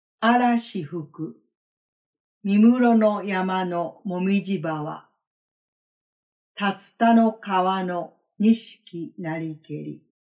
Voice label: Poem Japanese; one-hundred-poems-from-old-japan; one of the most respected and oft-quoted compilations of the Japanese poetic canon